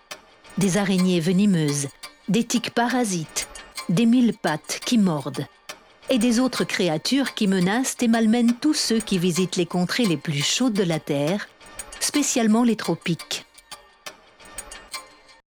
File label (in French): Documentaire Insecte VOIX OFF maman